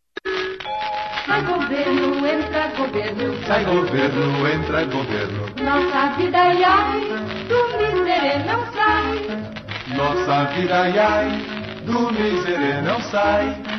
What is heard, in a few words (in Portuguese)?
Jingle Com Locutor, jingles politicos; Presidente